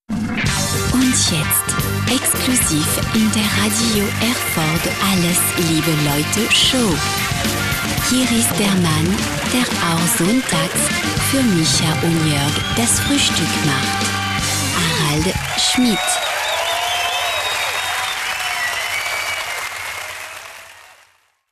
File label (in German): Akzent Franzoesisch